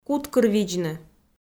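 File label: "куткырвидзны", Komi, female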